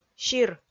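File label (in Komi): "сир", Komi, female; kpv audio dict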